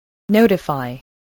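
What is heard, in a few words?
"notify", English word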